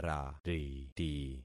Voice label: "rariti", concat syllables; Affixing experiment